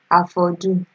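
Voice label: "hafodu", Nigerian Pidgin, female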